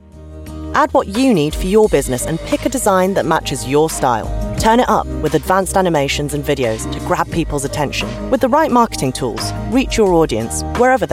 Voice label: Estuary; Wix

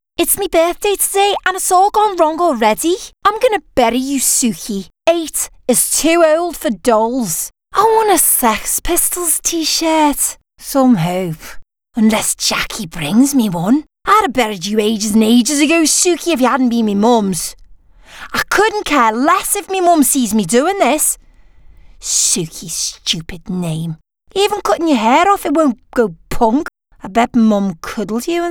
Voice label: Bubbly Liverpool

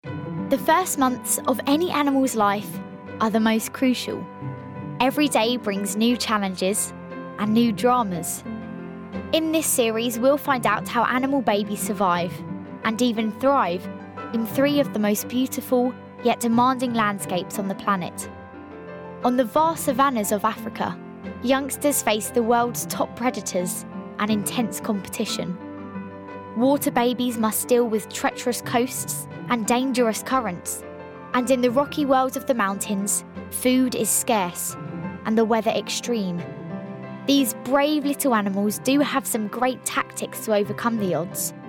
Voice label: Documentary

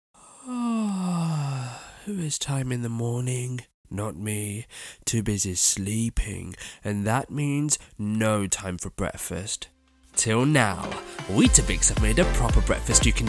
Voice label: Commercial Showreel

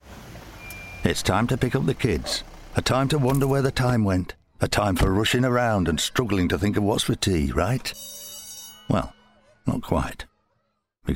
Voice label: Commercial; Toby Carvery

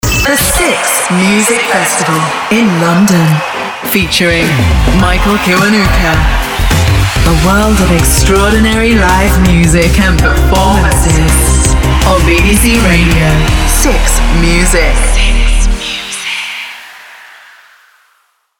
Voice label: Radio Imaging; 6 MUSIC

